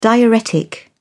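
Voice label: "diuretic", British English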